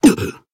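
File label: damaged, Japanese; zeviro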